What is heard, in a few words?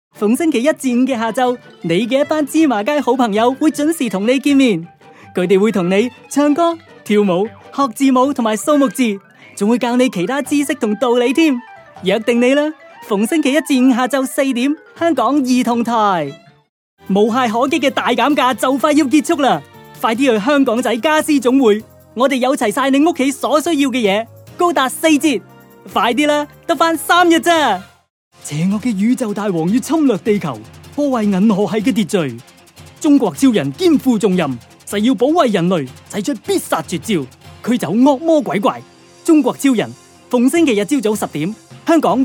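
Cantonese, TV commercial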